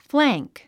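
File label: "flank", English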